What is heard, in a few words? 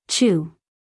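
"chew", American English, female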